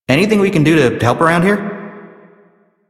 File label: Citizen Male, en, Mall; Warframe; Citizens